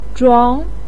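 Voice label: "zhuang", Mandarin Chinese syllable, second tone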